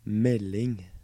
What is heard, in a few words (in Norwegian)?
"Melding", Norwegian